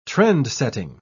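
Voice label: "trend-setting", British English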